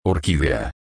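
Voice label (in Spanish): "orquidea", español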